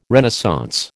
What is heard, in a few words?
"Renaissance", American